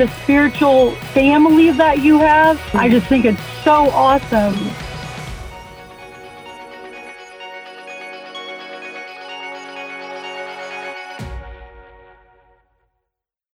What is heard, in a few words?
Catholic Radio Testimonial